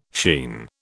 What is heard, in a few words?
"sheen", British